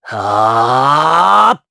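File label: Vox Casting, Japanese; Esker; kingsraid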